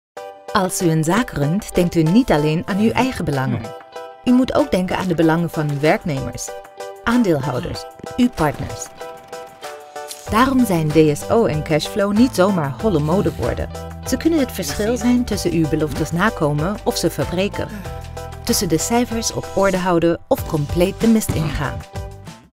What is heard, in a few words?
NL explainer; C2FO How It Works